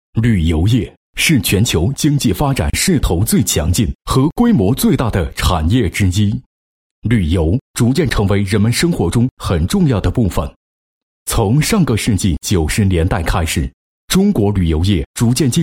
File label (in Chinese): 男 旅游; 某县旅游业发展工作